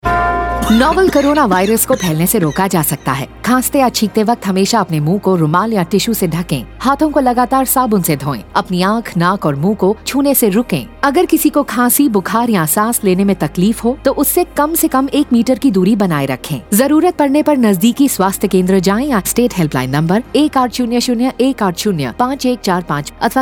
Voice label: Cough Radio Hindi, Radio spot; Uttar Pradesh; on key behaviours COVID-19; SARS-CoV-2; UNICEF IEC eWarehouse